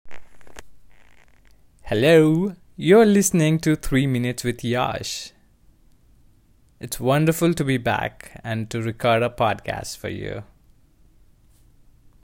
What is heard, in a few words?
Standard American Accent, voice overs